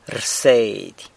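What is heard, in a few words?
"rseidy", San Lucas Quiavini Zapotec; learns (something)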